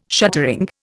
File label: "shuttering", Indian